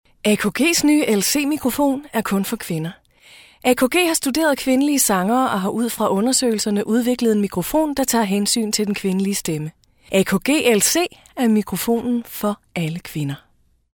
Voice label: Danca Kadin; seslendirme

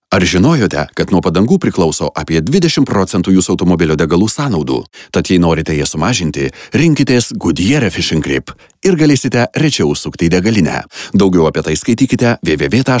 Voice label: Litvanca Erkek, Erkek Ses; litvanca seslendirme; erkek sesler

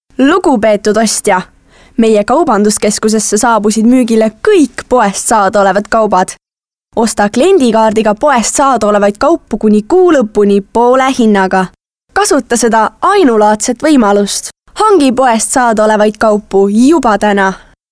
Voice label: Estonca Kadin, seslendirme

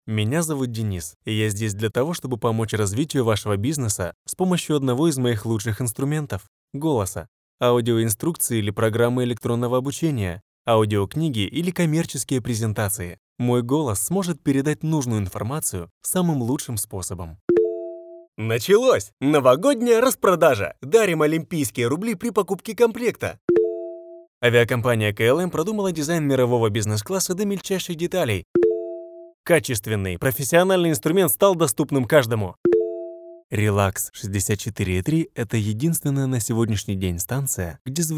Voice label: Rusca Erkek, Seslendirme, Erkek Ses

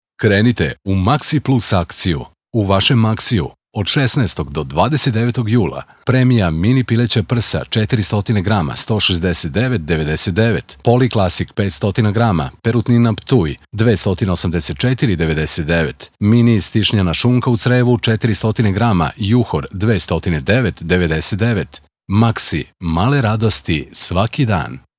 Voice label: Sirpca Erkek, seslendirme; erkek sesler

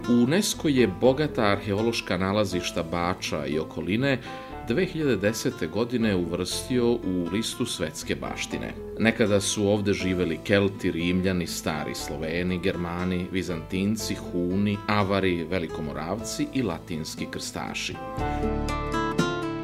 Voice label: Sirpca Erkek; sirpca seslendirme; erkek sesler

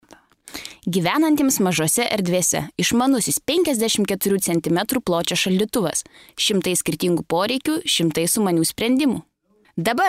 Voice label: Litvanca Kadin, Kadın Ses; litvanca seslendirme; kadin sesler

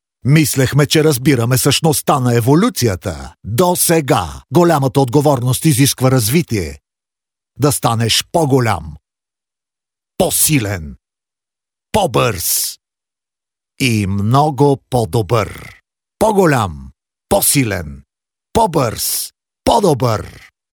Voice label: Bulgarca Erkek, seslendirme

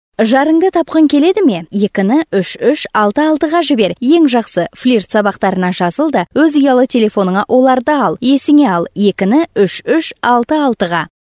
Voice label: Kazakca Kadin, Kadın Ses; seslendirme

